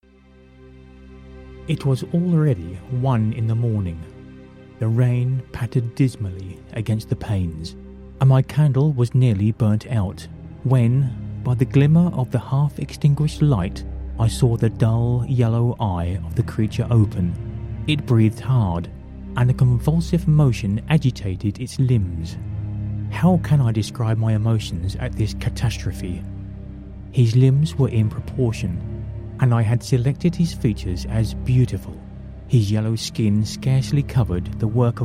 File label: audiobook